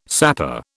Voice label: "sapper", British

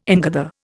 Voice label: "ingather", Indian

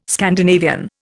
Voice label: "Scandinavian", Indian

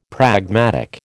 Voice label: "pragmatic", American

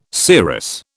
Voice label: "serous", British

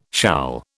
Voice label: "shall", British

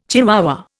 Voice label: "chihuahua", Indian

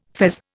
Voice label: "fez", Indian

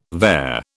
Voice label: "vair", British

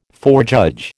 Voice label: "forejudge", American

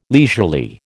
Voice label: "leisurely", American

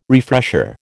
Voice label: "refresher", American

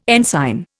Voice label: "ensign", Indian

